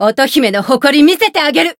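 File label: chant, Japanese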